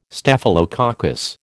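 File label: "Staphylococcus", American English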